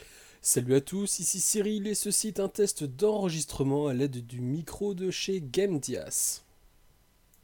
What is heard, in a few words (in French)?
test gamdias hephaestus p1 rgb enregistrement son